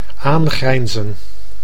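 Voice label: "aangrijnzen", Dutch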